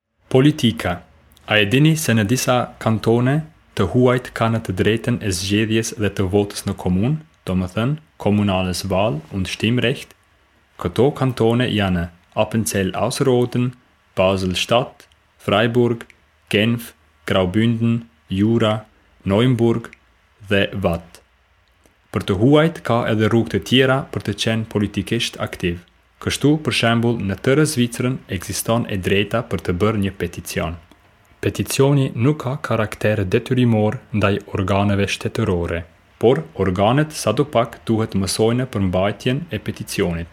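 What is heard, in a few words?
Albanian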